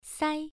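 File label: "sai", Mandarin Chinese syllable, first tone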